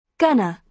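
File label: "gonna", British English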